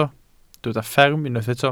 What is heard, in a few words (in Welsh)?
"fferm" Welsh